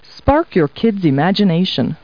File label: people, kid